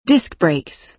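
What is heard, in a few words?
"disc brakes", American English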